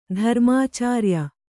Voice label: "ಧರ್ಮಾಚಾರ್ಯ", Kannada

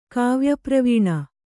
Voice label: "ಕಾವ್ಯಪ್ರವೀಣ", Kannada

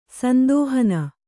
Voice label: "ಸಂದೋಹನ", Kannada

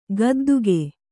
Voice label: "ಗುದ್ದಿಕೆ", Kannada

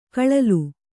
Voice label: "ಗಳಲೆ", Kannada

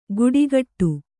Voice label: "ಕುಡಿಗಟ್ಟು", Kannada

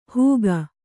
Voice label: "ಹೂಗ", Kannada

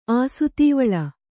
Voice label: "ಆಸುತೀವಳ", Kannada

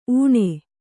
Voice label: "ಉಣ್ಣೆ", Kannada

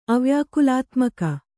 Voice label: "ಅವ್ಯಾಕುಲಾತ್ಮಕ", Kannada

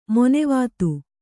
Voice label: "ಮಾನವತೆ", Kannada

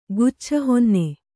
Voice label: "ಗುಚ್ಛಹೊನ್ನೆ", Kannada

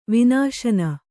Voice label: "ವಿನಶನ", Kannada

